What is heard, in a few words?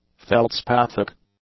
"feldspathic", english; adjectives